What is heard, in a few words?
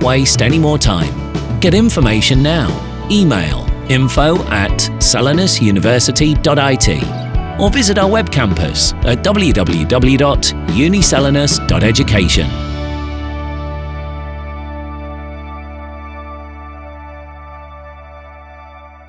Master Spot ENG; Uniselinus